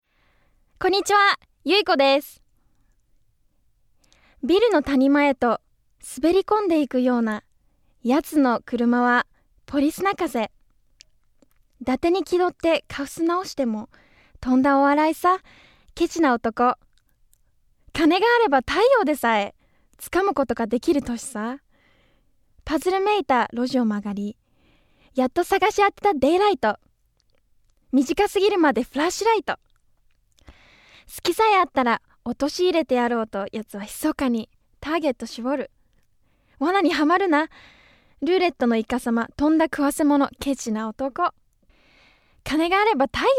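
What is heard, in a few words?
voice, Japanese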